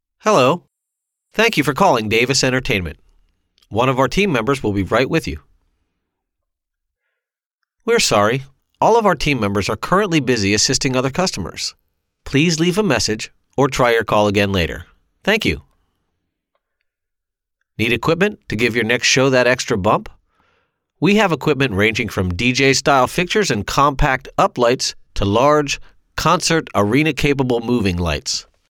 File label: Phone System Messages